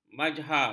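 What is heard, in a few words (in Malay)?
"majhar", Malay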